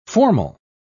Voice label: "formal", pronounce

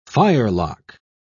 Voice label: "firelock", pronounce

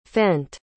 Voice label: "fent", pronounce

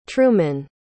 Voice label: "trumen", pronounce

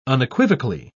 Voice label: "unequivocally", pronounce

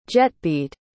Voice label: "jetbead", pronounce

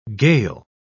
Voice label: "gale", pronounce

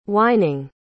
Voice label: "whining", pronounce

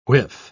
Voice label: "whiff", pronounce

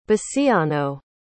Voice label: "basiano", pronounce

